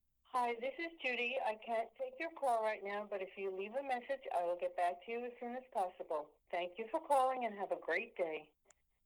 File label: Voicemail Greeting Cell Phone